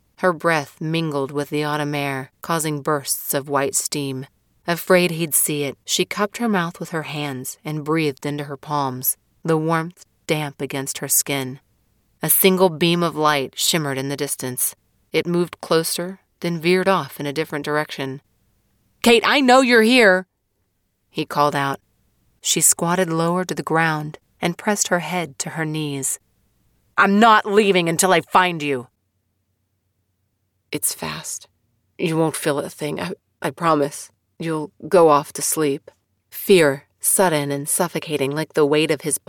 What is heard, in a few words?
Thriller, Audiobooks